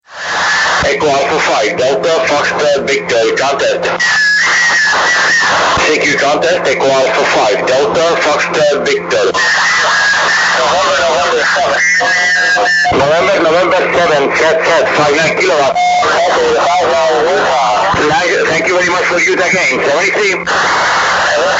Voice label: 20m Phone; ARRL